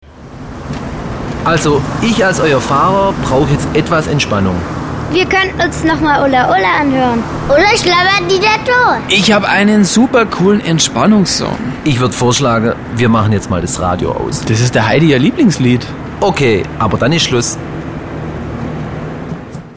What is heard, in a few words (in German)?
sprechszene